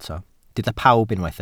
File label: "pawb", Welsh